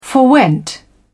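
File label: "forwent", English, British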